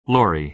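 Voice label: "lorry", American English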